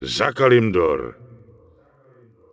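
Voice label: Night Elf Male error spell cooldown, Czech; wowpreklad; czwow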